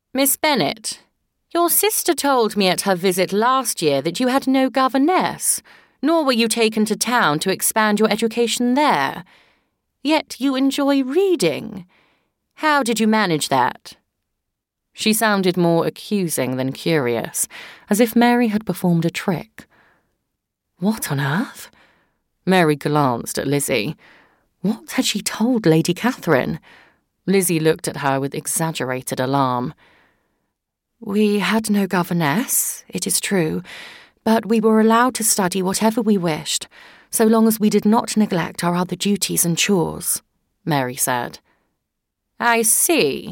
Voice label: system announcements